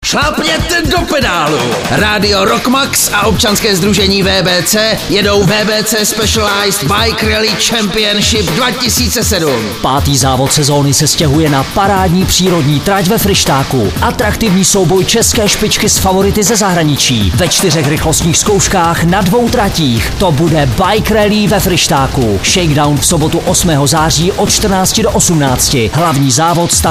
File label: radio spot